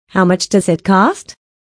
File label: "How much does it cost", Pronunciacion en ingles